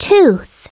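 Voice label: "tooth", English; SoftKey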